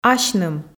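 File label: "асьным", Komi, female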